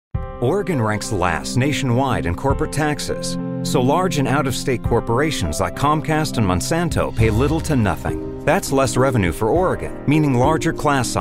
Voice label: Negative Political

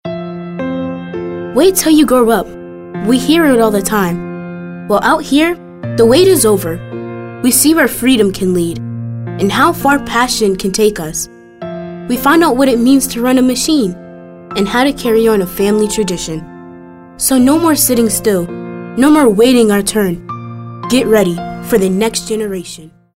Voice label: thoughtful

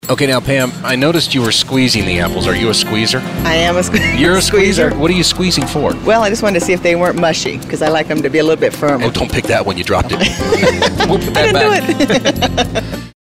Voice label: street interviewers

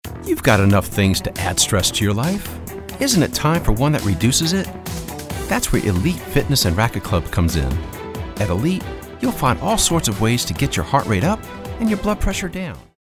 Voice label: motivational

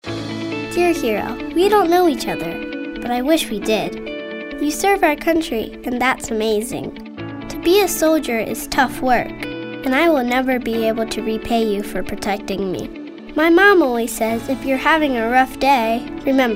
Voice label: motivational

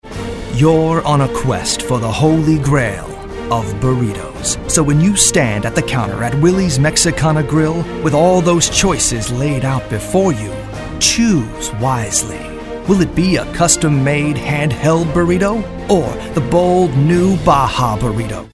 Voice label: Epic

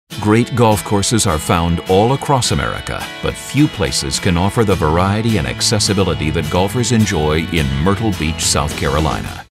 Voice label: friendly smooth mellow

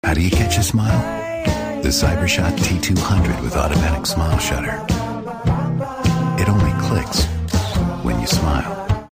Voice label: smooth

